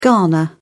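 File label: "ghana", British English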